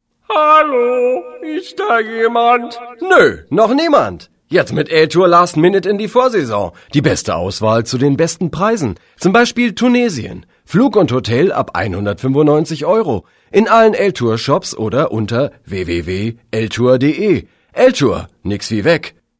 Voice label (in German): Werbespot